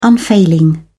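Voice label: "unfailing", English, uk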